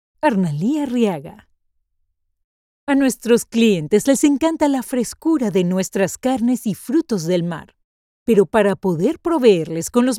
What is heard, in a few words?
eLearning